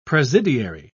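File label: "presidiary", British English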